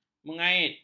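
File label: "menggait", Malay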